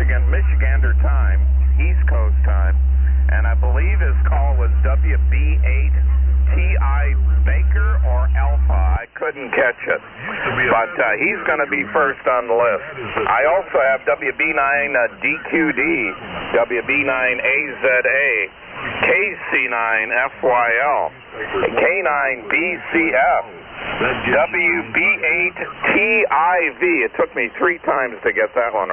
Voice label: SSB Net Check Ins

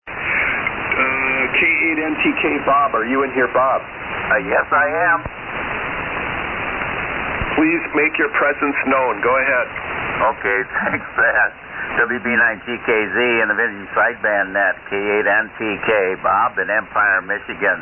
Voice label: Drake T-4X & R-4A D-104 Mic & LK-450 Amp; Empire,MI.

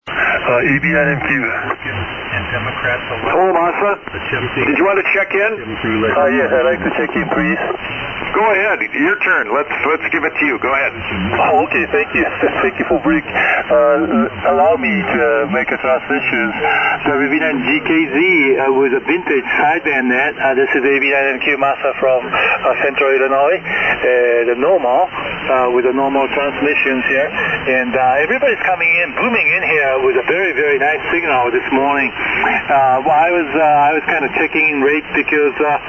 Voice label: Drake T4XC & R4C Shure Mic Kenwood TL-922A Amp; Normal, IL.

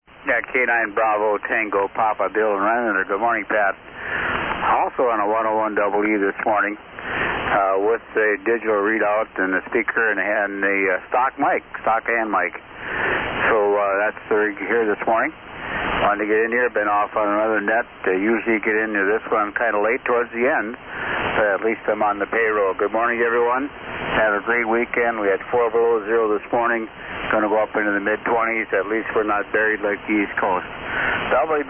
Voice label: Yaesu FT-101EE; Rhinelander, WI.

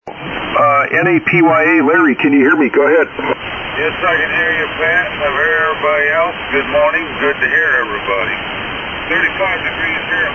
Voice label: Heathkit SB-102 D-104 Mic & SB-200 Amp; Bowling Green, OH.

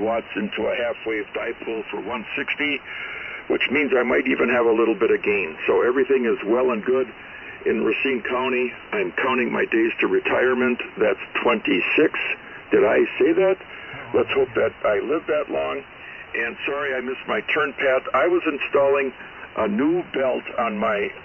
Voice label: Yaesu FT-102 & Shure 444 Mic; Caledonia, Wi.

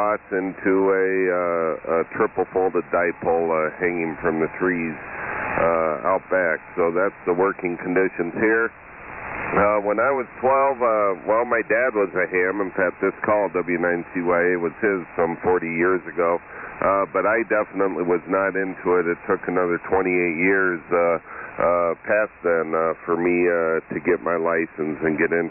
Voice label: Kenwood TS-530S; Edgerton, Wi.

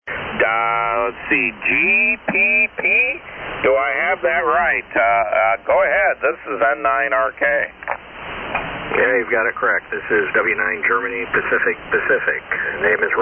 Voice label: Collins S-3 Line EV-664 Mic & 30L-1 & Amp; Valparaiso, IN.

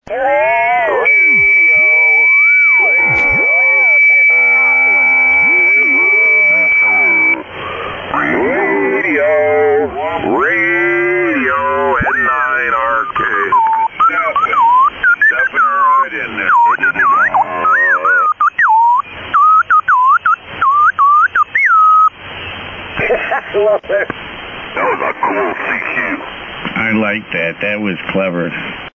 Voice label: SSB Net Tune-Up

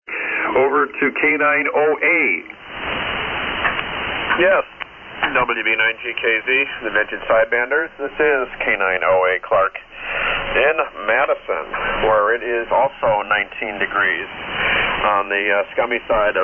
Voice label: Hallicrafters HT-32B SX-115 638 Mic & SB-200 Amp; Madison,Wi.

